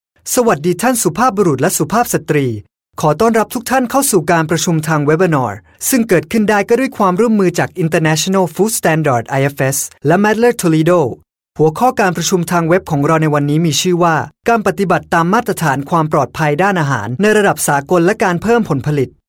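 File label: Thai Narrative; GoLocalise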